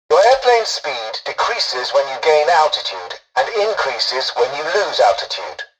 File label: tutorial dialogue, english; War Thunder